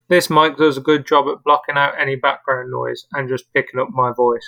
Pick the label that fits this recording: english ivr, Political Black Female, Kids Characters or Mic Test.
Mic Test